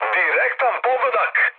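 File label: Serbian bomb success mood med, speech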